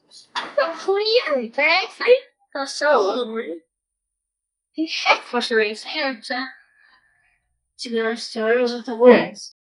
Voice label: a girl saying "well hello"